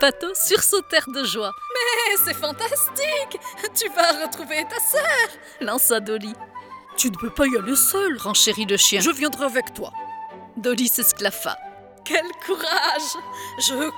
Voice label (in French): livre enfant, voix